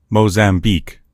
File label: "mozambique", en US; gcompris; geography